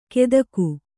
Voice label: "ಕೆದಕು", Kannada

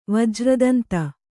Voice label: "ವಜ್ರದಂತ", Kannada

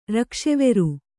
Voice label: "ರಕ್ಕಸವೇರ", Kannada

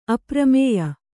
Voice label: "ಅಪರಿಮೇಯ", Kannada

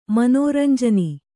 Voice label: "ಮನೋರಂಜನಿ", Kannada

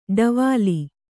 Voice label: "ಡವಾಲಿ", Kannada

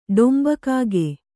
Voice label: "ಡೊಂಬಕಾಗೆ", Kannada; ḍomba kāge; noun; a jungle-crow

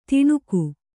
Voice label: "ತಣುಕು", Kannada

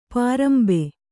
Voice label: "ಪಾರಂಬೆ", Kannada

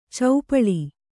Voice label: "ಚೌಪಳಿ", Kannada

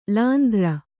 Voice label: "ಲಾಂದ್ರ", Kannada

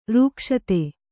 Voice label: "ರಕ್ಷಿತ", Kannada

